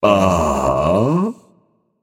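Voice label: "seriously", male; baronsamedi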